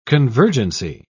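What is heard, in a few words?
"convergency", British English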